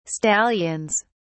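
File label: "stallions", British English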